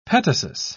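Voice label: "petasus", British English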